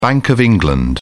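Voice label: "bank of england", British English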